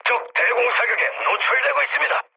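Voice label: Korean damaged aa mood low, speech; War Thunder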